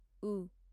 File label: "u" short